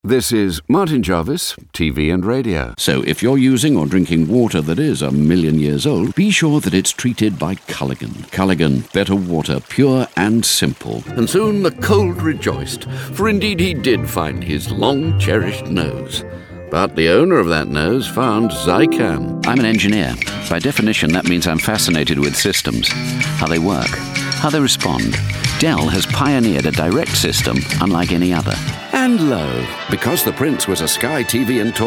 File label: commercial